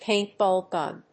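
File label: "paintball gun", English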